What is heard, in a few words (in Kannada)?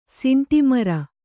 "ಸಿಂಟೆಮರ", Kannada